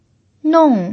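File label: "nong", fourth tone, Mandarin Chinese pinyin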